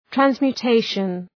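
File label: "transmutation", English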